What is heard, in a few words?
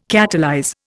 "catalyze", Indian